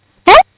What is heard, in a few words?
Kid Says What; Talking; Voice